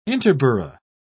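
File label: "interborough", British English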